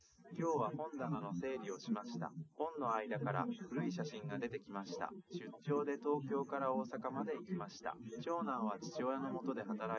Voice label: male live rec; SiSEC08; SiSEC underdetermined